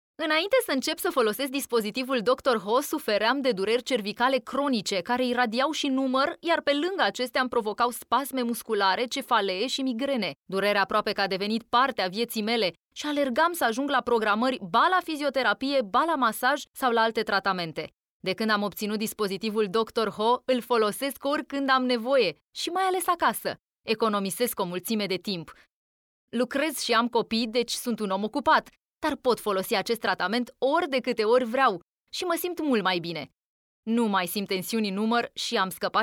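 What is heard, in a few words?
romanian female commercial; voice demos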